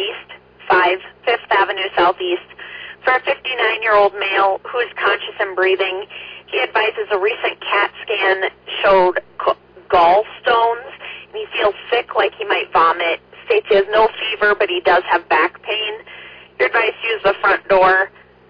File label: Oelwein Ambulance, dispatch